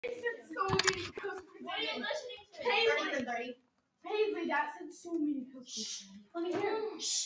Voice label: girls talk